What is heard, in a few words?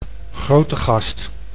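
"Grootegast", Dutch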